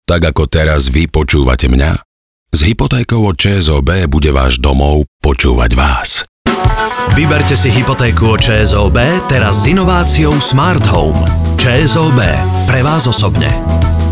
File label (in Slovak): radio spot